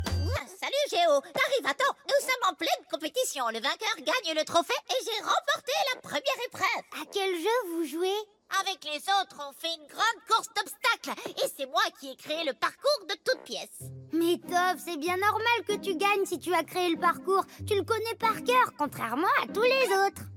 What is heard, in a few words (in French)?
dessins animes; tangranimo